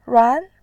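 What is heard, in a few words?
"ran", Mandarin Chinese, second tone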